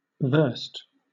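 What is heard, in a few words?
"versed", British English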